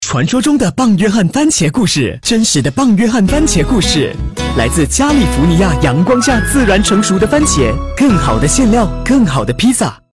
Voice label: Mandarin (CHINA ACCENT) - Proper, Friendly, Announcer; Papa John's CHINA